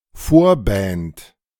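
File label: "Vorband", German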